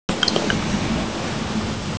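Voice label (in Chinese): "yu4mi3", Mandarin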